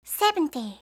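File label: "seventy", English; eng suuji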